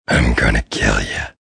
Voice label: I'm Gonna Kill Ya, Male; Talking Sound Effects; Sound Effects:Voice; VoiceBox